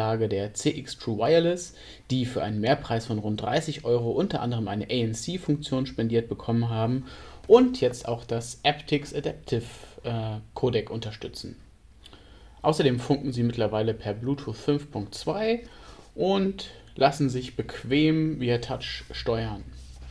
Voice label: sennheiser cx plus mikrofon

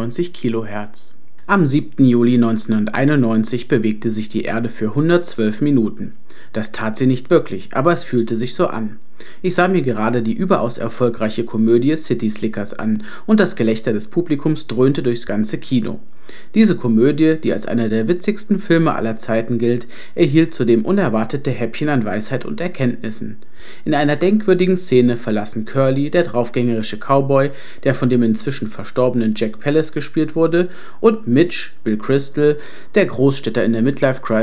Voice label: asus rog carnyx testaufnahme

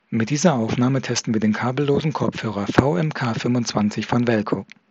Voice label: Mikrofon; VMK25

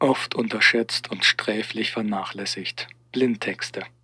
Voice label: Mikrotest Analog Mikro; BTW3

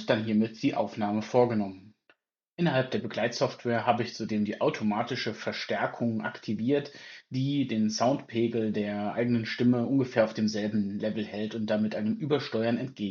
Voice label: inzone buds testaufnahme

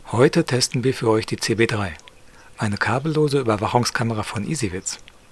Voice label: Original per PC USB

